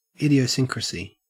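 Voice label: "idiosyncrasy", Australian English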